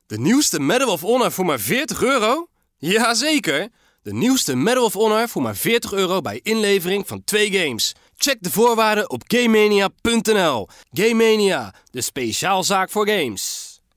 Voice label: NL M; Demo Gamemenia

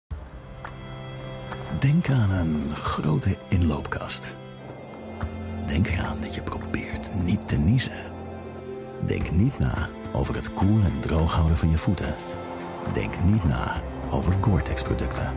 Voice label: TVC; Gore-Tex

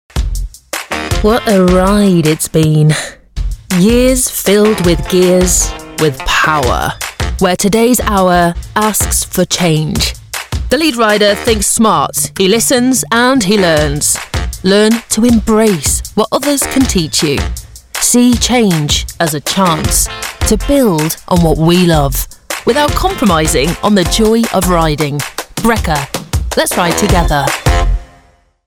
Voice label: UK; Brecker

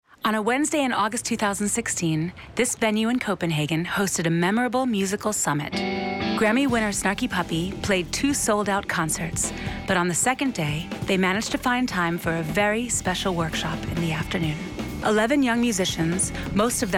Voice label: US TV Voice over

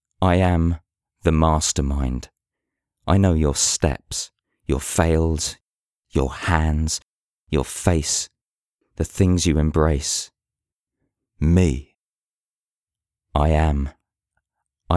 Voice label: monologue

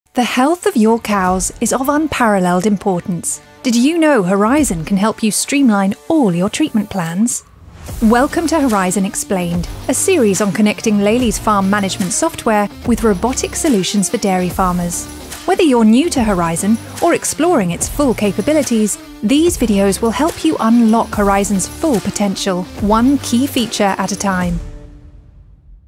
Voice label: UK Explainer; Lely Horizon

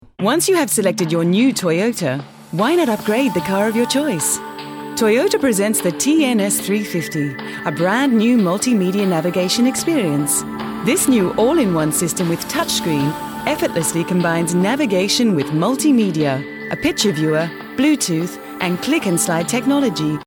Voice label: Mid Atlantic, voice-overs; Toyota

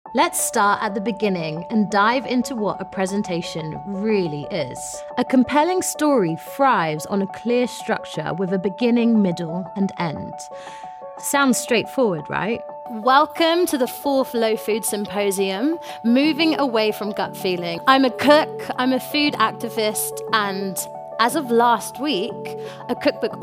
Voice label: presenting, voice-overs

